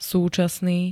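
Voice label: "súčasný", Slovak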